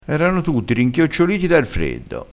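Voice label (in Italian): "rinchiocciolito", dialettale, crespinese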